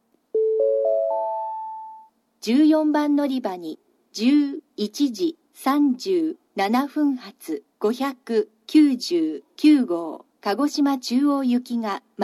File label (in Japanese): のりば予告放送, 新幹線ホーム; 14番のりば予告放送（団体599号 鹿児島中央行き）※全車指定席